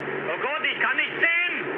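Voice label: German searchlite mood low, speech; War Thunder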